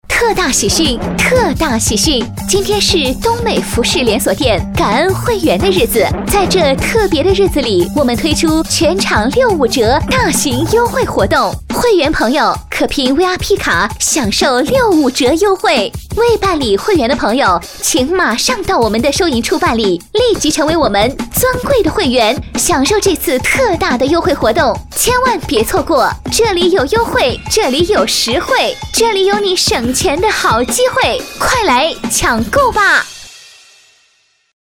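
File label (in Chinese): 促销广告配音, 女声配音欢快、时尚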